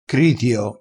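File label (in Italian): "critio", vocabolario dialettale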